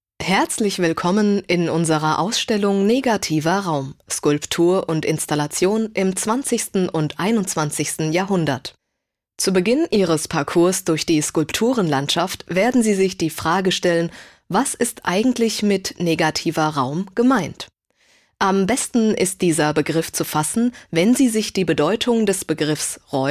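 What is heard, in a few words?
German intro audioguide; negativer raum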